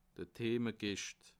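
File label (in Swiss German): "themerkischt", Alsatian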